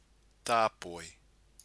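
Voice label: "tapoi", Māori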